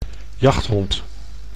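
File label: "jachthond", Dutch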